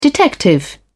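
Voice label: "detective", British English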